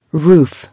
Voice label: "Roof", English